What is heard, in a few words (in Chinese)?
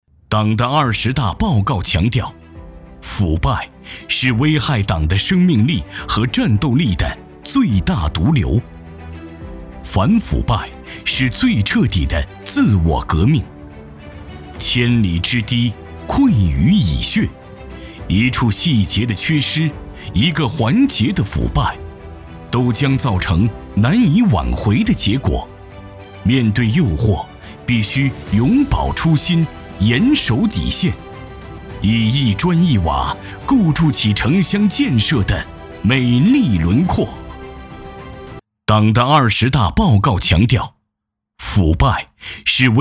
男 专题 反腐败